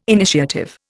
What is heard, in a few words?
"initiative", Indian